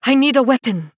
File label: Female Draenei error need weapon